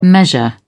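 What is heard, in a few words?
"measure", British English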